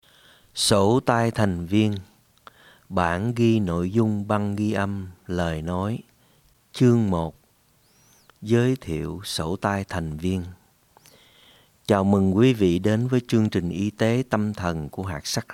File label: Viet; Member Handbook